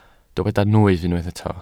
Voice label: "nwyf", welsh